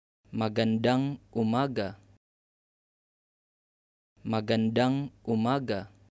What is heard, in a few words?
Good morning Tagalog